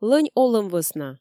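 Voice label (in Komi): лӧнь олӧм вӧсна, Komi, female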